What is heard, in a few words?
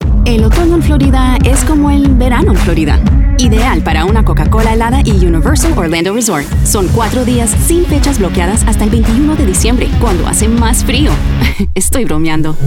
Female Commercial Spanish